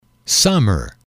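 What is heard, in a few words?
"summer", English, male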